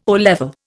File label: "O level", Indian